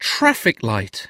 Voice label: "traffic light", English

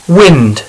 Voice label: "wind", English; pocasi

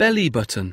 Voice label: "belly button", English; body

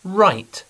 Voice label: "write", English; skola; skolni cinnosti

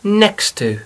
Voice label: next to, English

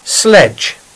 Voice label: "sledge", English

